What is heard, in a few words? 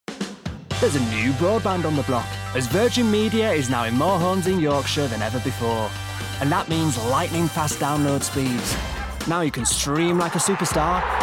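Commercial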